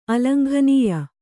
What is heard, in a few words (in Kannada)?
"ಅಲಂಘನೀಯ", Kannada